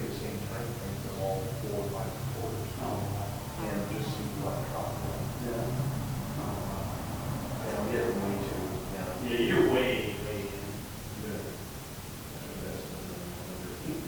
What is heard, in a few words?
loud voice; shelton hotel